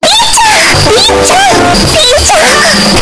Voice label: earrape; rin chan